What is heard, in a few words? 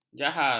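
"jahar", Malay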